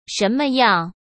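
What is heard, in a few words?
"什么样" Mandarin Chinese; hsk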